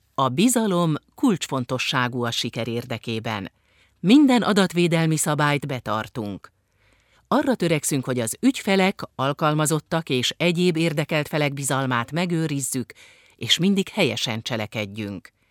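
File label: Hungarian, female, corporate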